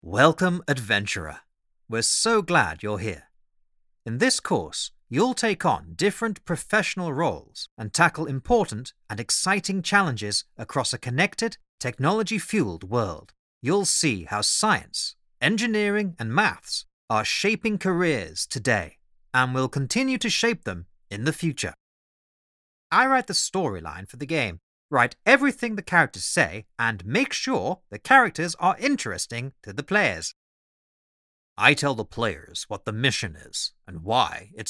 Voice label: E Learning Resource